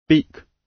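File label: "beak", British; Flora and fauna, agriculture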